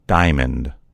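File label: "diamond", American English; gcompris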